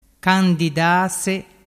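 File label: "candidase", Vernazzese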